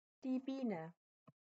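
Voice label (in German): "Biene", Deutsch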